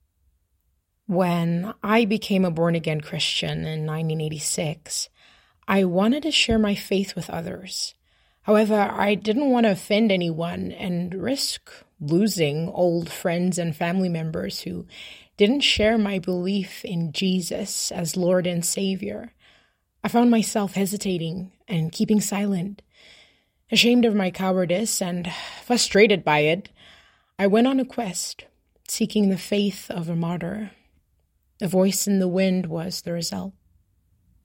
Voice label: Standard American Narration